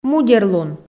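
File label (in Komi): "мудерлун", Komi, female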